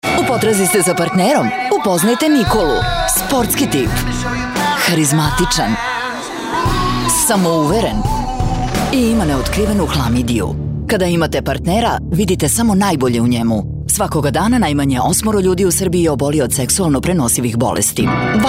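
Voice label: Serbian, female, commercial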